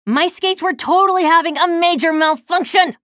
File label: chatter negative; slash; respawn